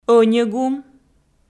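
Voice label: "ӧньӧ гум", Komi, female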